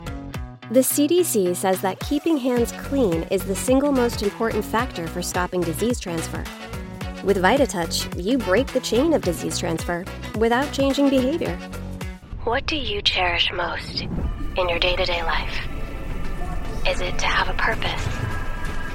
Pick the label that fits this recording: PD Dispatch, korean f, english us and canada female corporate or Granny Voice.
english us and canada female corporate